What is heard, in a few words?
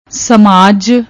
"ਸਮਾਜ", Punjabi